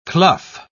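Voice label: "clough", British English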